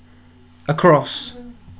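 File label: "across", British English, male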